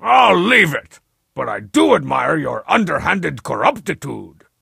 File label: Gem Strong Sad; SBCG Ep4